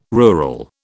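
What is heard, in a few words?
"rural", British English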